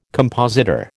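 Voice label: "compositor", American English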